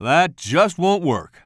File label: commentator